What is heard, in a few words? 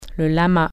"llama", French